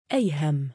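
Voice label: "2ayham" male, Arabic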